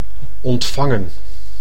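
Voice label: "ontvangen", Dutch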